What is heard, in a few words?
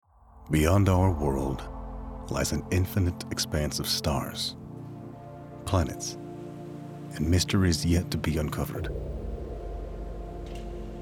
Space Documentary